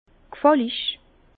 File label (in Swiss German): "gfallig", Bas Rhin; vin; plaisant